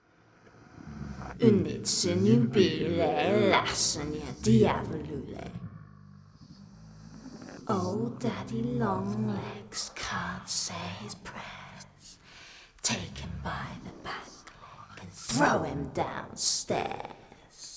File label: Demonic Voice